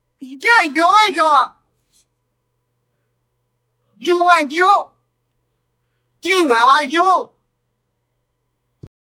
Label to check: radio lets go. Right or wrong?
Wrong